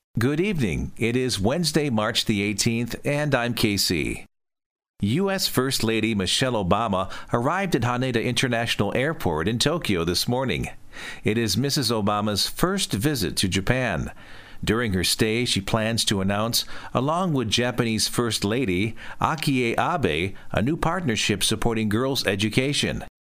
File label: news